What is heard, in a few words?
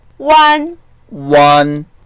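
"wan1", Mandarin Chinese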